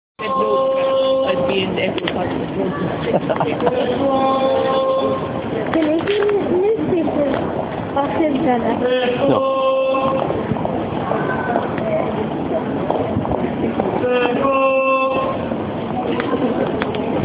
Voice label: echo news paper seller at the gpo in cork city